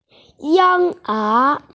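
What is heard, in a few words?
"yinger", Mandarin Chinese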